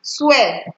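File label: "swear", Nigerian Pidgin, female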